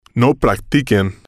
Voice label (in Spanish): no practiquen, Spanish